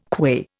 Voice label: "qua", Indian

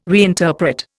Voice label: "reinterpret", Indian

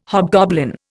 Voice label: "hobgoblin", Indian

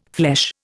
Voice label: "flesh", Indian

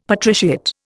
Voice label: "patriciate", Indian; Dictionary

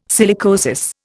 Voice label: "silicosis", Indian

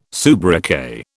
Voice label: "soubriquet", British

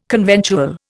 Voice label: "conventual", Indian

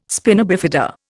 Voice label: "spina bifida", Indian

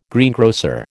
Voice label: "greengrocer", American

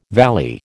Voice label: "valley", American

